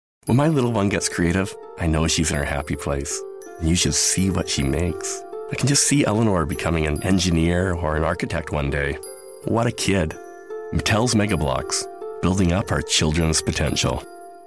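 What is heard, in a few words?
Commercial Voice Over, Warm · Family-Friendly; Commercial Demo Clips; Mega Bloks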